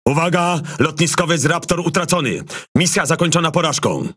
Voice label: CARRIER IS DEAD, Languages PL; PVE; World Of Warships